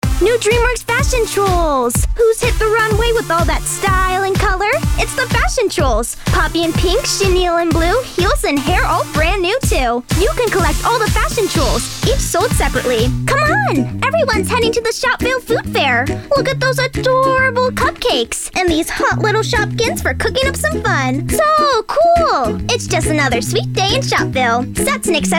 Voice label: Youth, vo

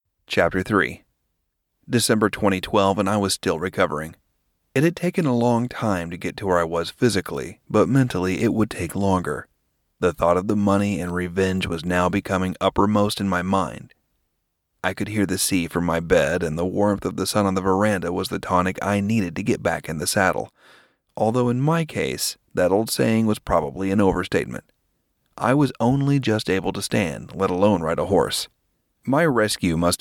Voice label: Narration; promo

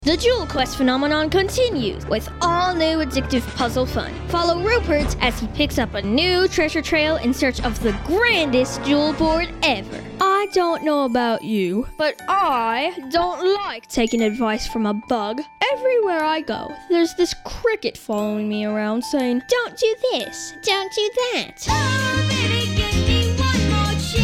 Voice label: Youth, vo

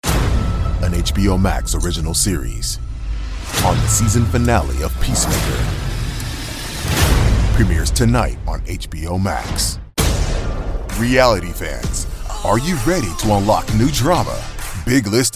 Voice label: Promo

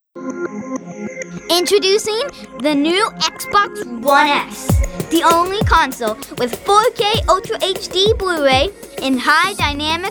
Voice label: Slate Youth

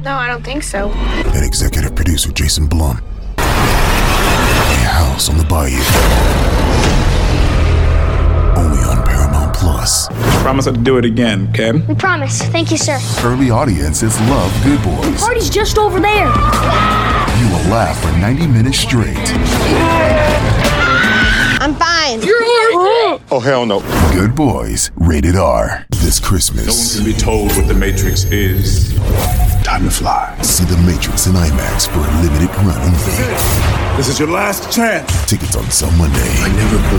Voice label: Trailer

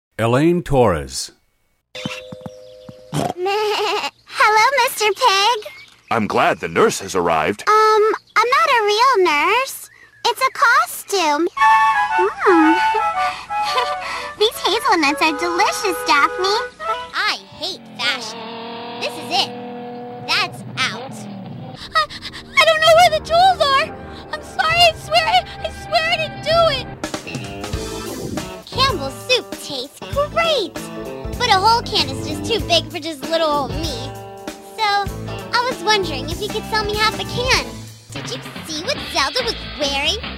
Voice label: Commercial

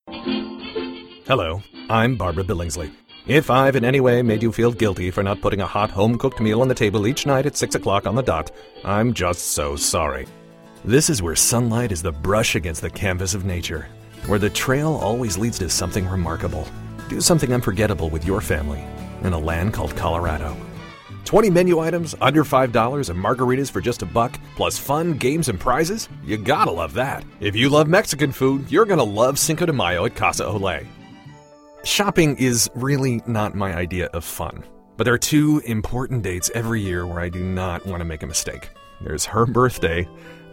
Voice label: Commercial

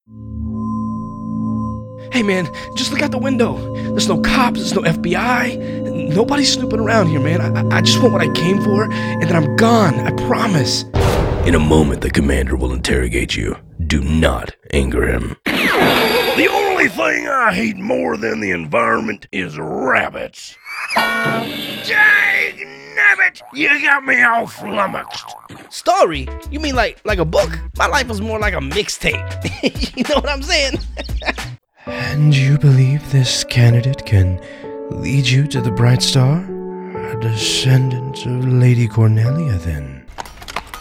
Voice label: Animation

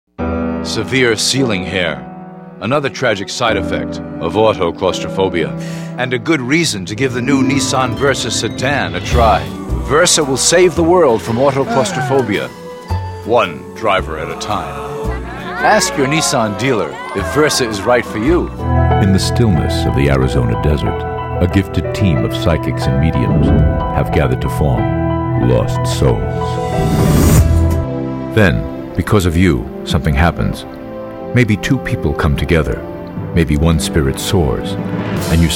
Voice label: commercial

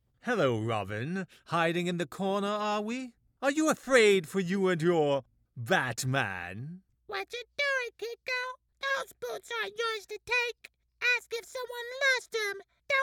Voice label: Animation, vo

